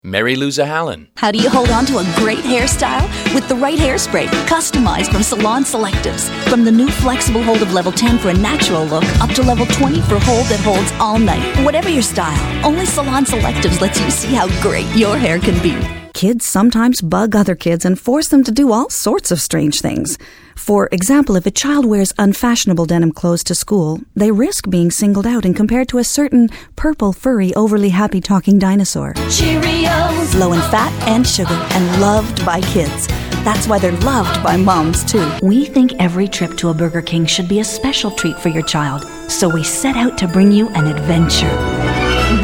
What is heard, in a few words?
commercial female